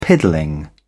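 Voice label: "piddling", British English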